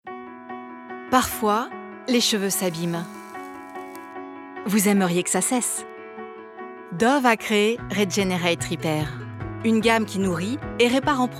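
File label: French; Dove; Regenerate Repair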